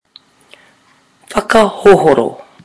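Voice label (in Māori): "whakahohoro", Māori word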